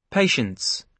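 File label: "patience", British English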